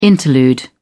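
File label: "interlude", British English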